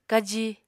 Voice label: "kaji", Apatani